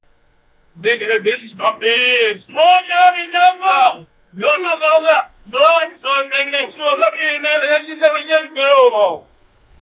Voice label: commentator, wrestling; wwe